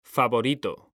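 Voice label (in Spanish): "favorito", Spanish, male; mekanta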